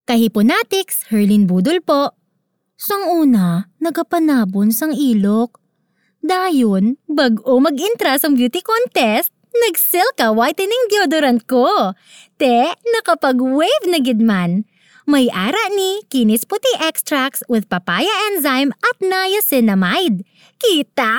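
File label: Hiligaynon female voice over Voice Sample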